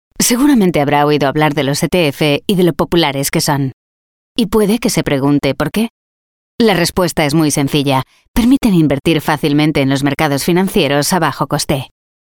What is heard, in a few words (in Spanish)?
European Spanish; ETF